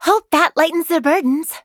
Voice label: act2 nonlinear positive; lux